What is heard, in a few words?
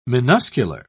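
"minuscular", British English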